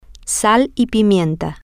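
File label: female, spanish; salt and pepper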